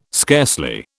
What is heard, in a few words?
"scarcely", British